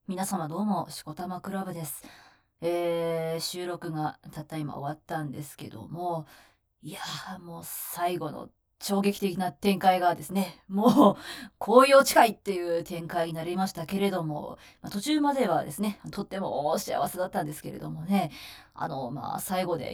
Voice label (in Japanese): フリートーク（収録後・地声で）